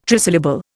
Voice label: "trisyllable", Indian

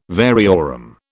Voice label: "variorum", British

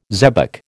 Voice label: "zebec", American

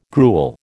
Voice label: "gruel", American

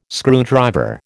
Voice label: "screwdriver", American

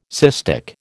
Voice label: "cystic", American

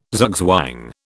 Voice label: "zugzwang", British

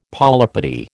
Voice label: "polypody", American

